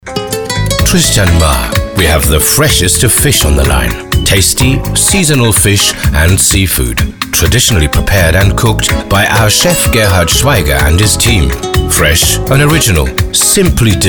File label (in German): Spot Englisch